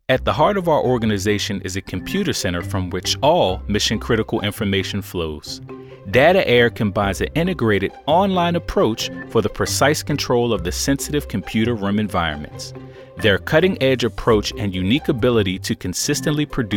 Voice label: NARRATION